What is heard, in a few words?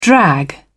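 "drag", British English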